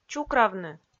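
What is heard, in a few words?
"чукравны", Komi, female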